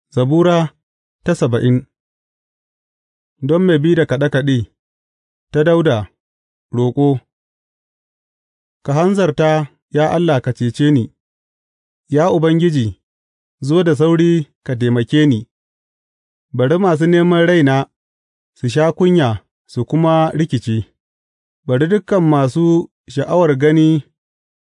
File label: hausa bible drama, psalms; hausa bsn nt drama